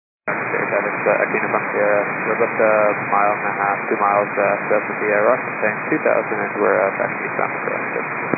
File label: 122 925MHz, scanner radio